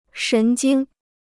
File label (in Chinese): "神经", Chinese